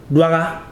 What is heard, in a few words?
"gargle", Ibibio